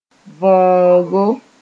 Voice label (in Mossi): "võogo", moore